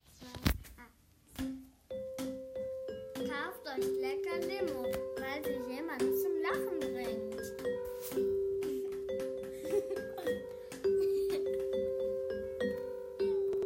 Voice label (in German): Radio Werbung; radio werbung vom jahrgang 3 an der gss